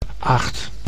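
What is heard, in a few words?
"aagt", Dutch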